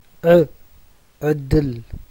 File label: "'e", tigrinya vowels